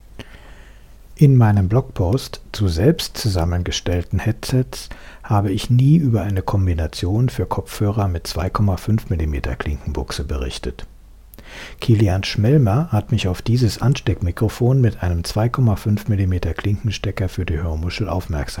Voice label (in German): Kabel Boom Mikrofon am Audio Interface